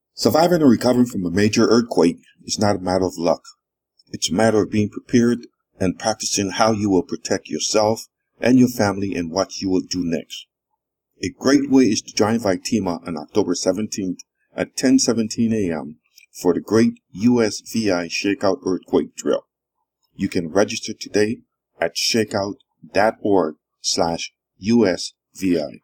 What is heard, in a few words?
PSA English; USVI